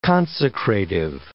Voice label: "consecrative", English